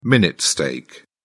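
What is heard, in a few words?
"minute steak", British English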